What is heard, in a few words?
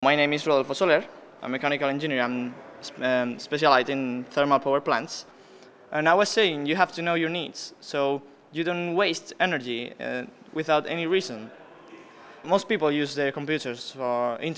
english